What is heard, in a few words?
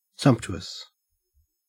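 "sumptuous", Australian English; pronunciations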